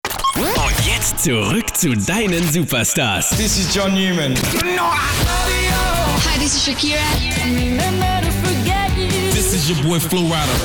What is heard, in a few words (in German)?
Station Voice Sprecher